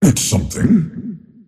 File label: lasthit pain; dota; earthshaker; arcana